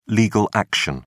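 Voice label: "legal action", British English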